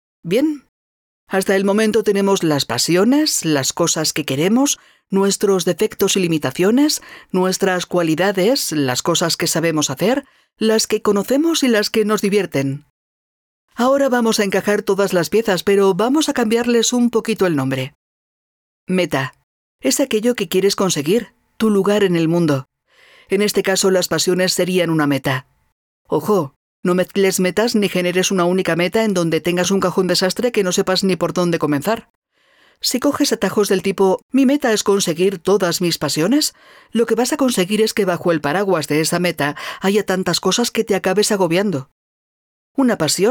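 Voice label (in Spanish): e-learning, Spain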